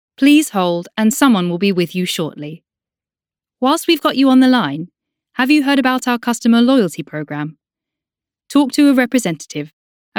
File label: voiceover on hold